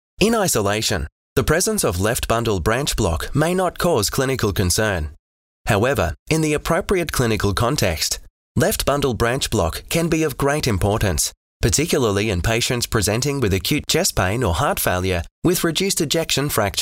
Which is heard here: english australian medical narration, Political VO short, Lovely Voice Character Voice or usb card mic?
english australian medical narration